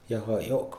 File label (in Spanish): "asesinar", Mixe, hombre